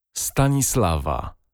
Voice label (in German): "stanislawa", polnische maedchennamen